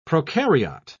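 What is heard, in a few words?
"prokaryote", British English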